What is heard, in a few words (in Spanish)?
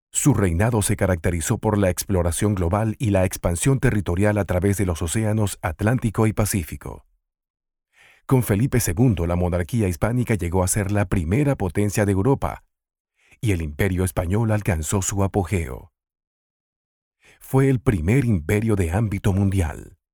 español neutro